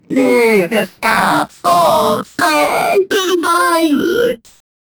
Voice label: angry shout shopkeeper, shop